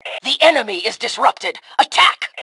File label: Enemy Disarray, Female; T2 Female 02